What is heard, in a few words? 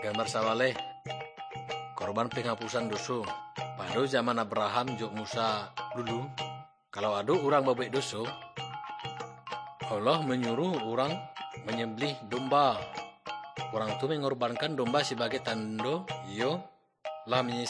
good news minangkabau muko muko; malay; kaba ilo